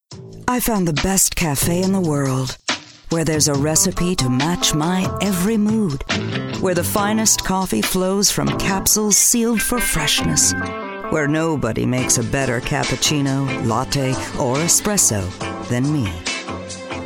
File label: commercial